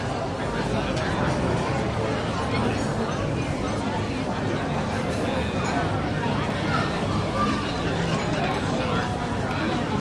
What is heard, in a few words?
Babble; iOS Sounds